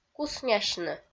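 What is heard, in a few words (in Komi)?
"куснясьны", Komi-Zyrian, female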